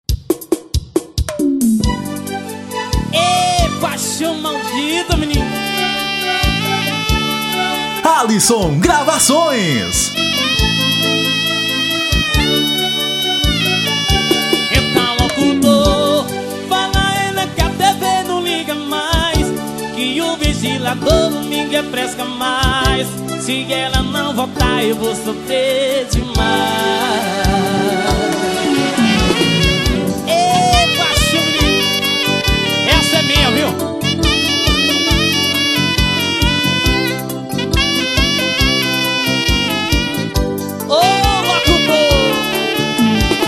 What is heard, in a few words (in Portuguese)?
locutor